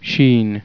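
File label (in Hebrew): "shin", Hebrew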